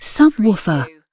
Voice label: UK female subwoofer; surround; lynne publishing